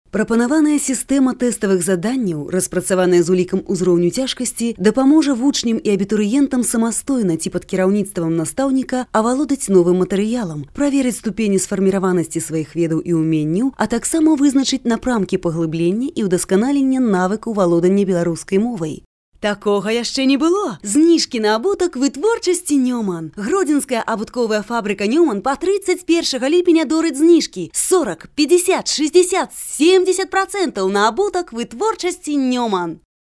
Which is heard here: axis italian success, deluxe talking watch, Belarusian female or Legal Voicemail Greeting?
Belarusian female